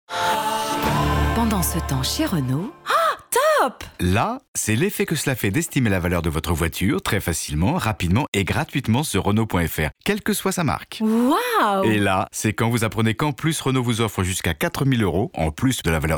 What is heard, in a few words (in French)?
Voix radio; renault